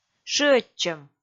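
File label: "шыӧдчӧм", Komi, female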